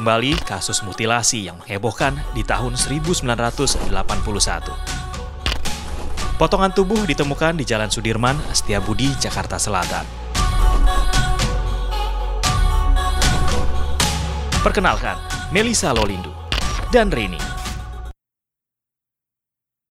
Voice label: INDONESIA FORMAL